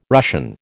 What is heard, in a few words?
"Russian", American